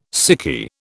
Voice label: "sickie", British